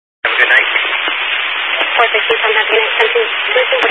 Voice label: Highway Patrol, scanner; DPS

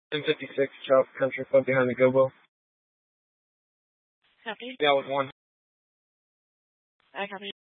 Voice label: Mesa PD Dispatch; TOPAZ Rgnl Wireless Co-op (TRWC); Fiesta

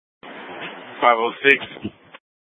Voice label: Public Transit System Light Rail Ops, scanner; Valley Metro

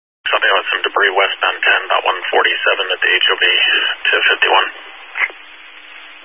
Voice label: Highway Patrol, Phoenix Metro East Area, scanner

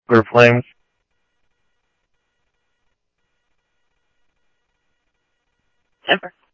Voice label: PD Police, scanner audio

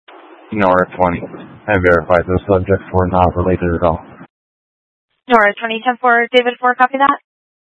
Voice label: Mutual Aid-Intersystem Interop B, scanner

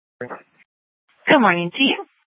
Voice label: Sheriff, scanner P25; Shrf Lakes

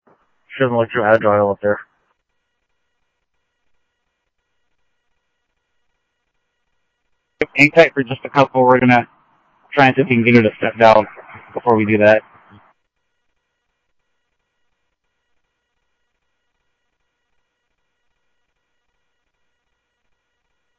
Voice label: Mesa Simulcast police; jumper

